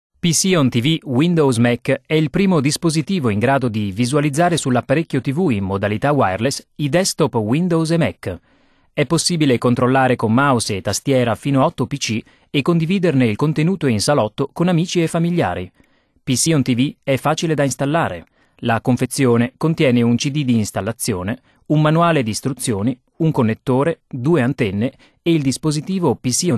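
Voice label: voiceover Italian, maenner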